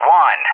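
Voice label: "1", English; JTAC; Digits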